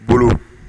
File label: "bolo", Bambara